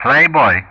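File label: "Playboy", English; Ka-50; Callsign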